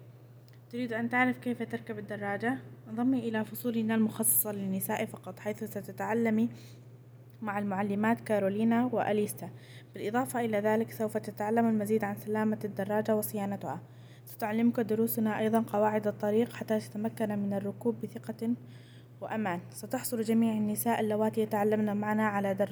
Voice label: Arabic